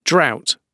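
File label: "drought", British English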